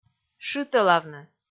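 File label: "шытӧлавны", Komi, female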